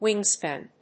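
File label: "wingspan", English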